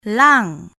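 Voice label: "lang", Malay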